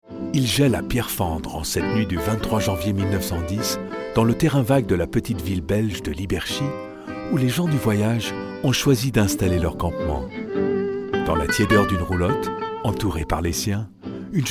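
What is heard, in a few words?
Voix Off Voice Over, bilingue Américain Français; Django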